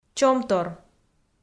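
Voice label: "чомтор", Komi-Zyrian, female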